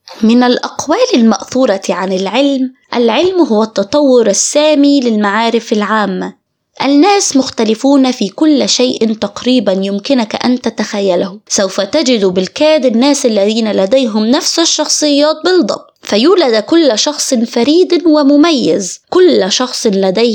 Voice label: Arabic, Female

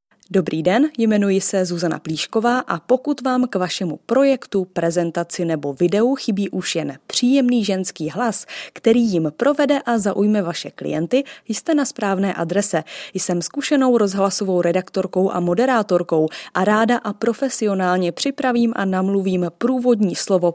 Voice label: Female, Czech

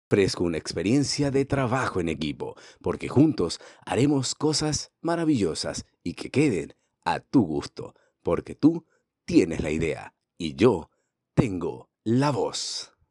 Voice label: Spanish (LA) Male

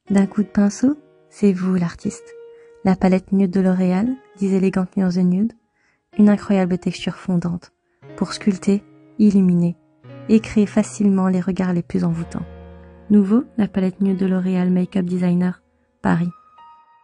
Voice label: French, Female

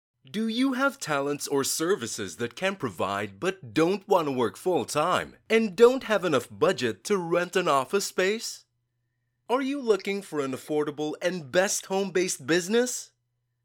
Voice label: English (Asian), Male

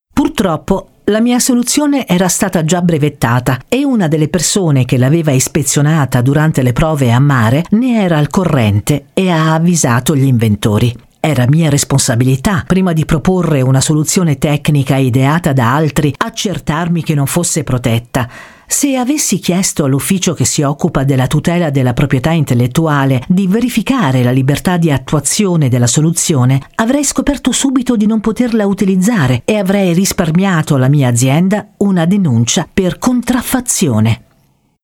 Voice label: Italian, Female

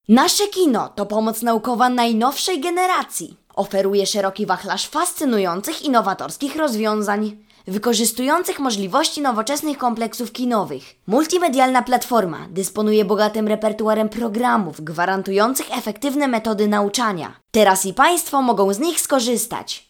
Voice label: Polish, Female

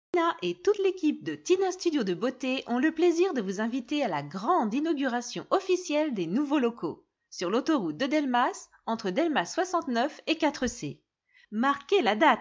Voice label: French, Female

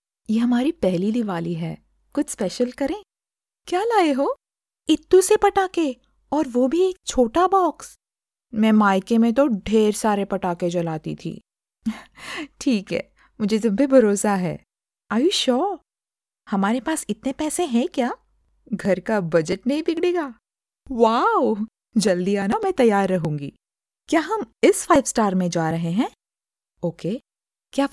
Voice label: Female, Hindi

